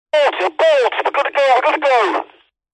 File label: technology cb radio male shouts abort abort we got to go speaker pov